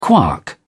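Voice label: "quark", English, British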